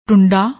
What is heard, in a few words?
"tunda", Punjabi